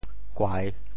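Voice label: "kuai3", Teochew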